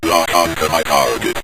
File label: lock On To My Target, Synth Voice; Kenney's Sound Pack